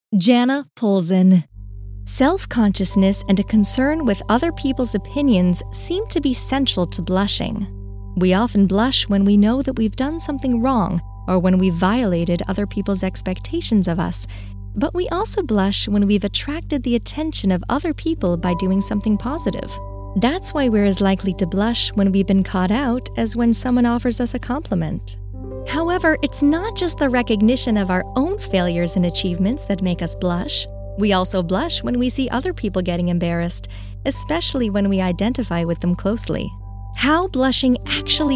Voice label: Narration